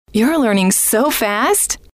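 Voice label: you Are Learning So Fast, female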